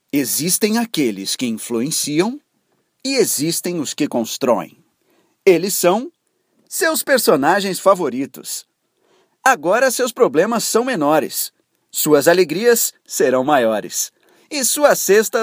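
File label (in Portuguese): Português - Brasileiro, Locução TV, Voz Jovem, Masculino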